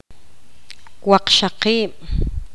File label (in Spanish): poqomam ocho